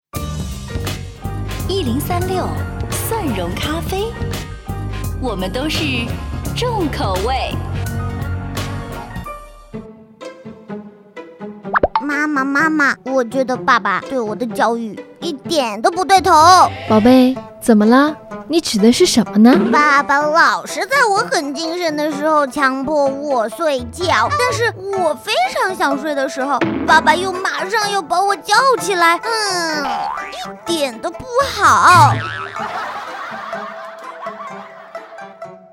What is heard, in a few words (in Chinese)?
女 角色搞怪; 精分剧场